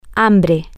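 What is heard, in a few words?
hungry, Spanish, female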